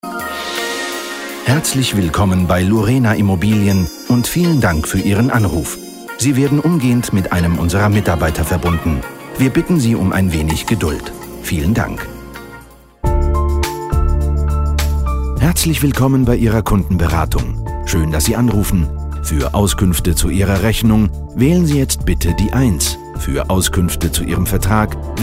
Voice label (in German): telefonansage German